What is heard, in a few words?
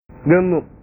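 "gemuk", Indonesian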